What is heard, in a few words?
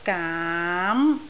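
"gaam2", Cantonese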